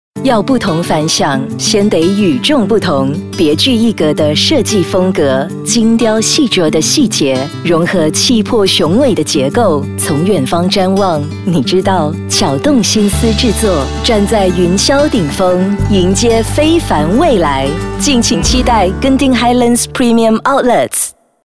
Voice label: Promo; Genting; CHI